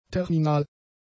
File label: "terminal", German; adjectives